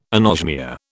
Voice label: "anosmia", British